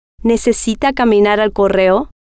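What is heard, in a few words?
necisita Caminar Correo, Spanish, female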